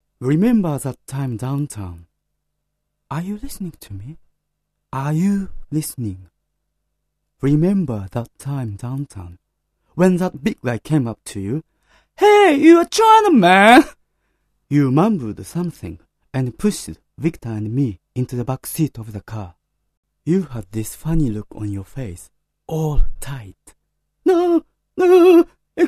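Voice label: Japanese, English; Male, 30s-40s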